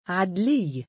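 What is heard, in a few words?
"3adliiy" male, Arabic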